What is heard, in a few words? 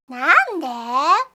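"nannde", Japanese, komaru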